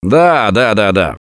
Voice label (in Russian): Yes, left4dead2 russian, Survivor Voice; Gambler